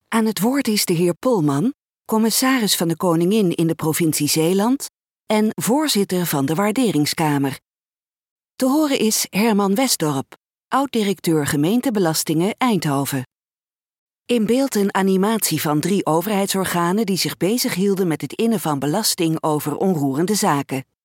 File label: dutch female audio description